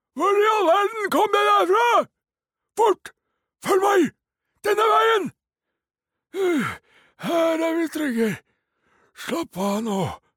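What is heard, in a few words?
Norwegian dub character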